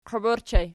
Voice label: "qwiib", Archi; qiwib

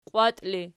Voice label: "qiwat", Archi

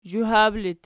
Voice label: "zhuhab", Archi

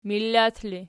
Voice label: "millat", Archi

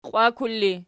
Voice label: "qiwakul", Archi

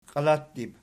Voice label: "qialattib", Archi

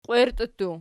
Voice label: "qwierti", Archi

